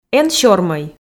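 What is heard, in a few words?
"эн сёрмӧй", Komi, female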